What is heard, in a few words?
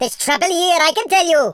take cover, Welsh; speech